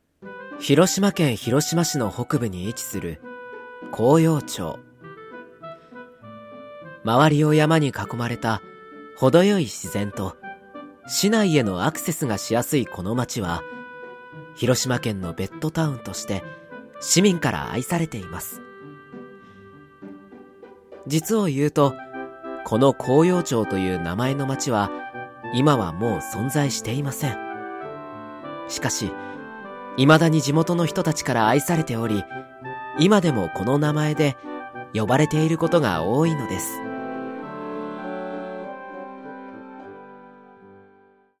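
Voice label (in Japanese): ボイスサンプル（ナレ）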